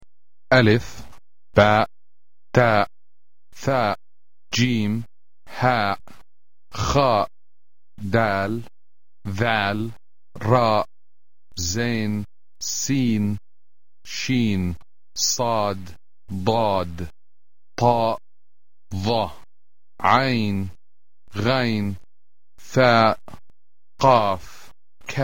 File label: alphabet, arabe